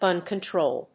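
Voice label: "gun control", English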